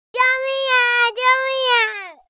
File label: child help, 人聲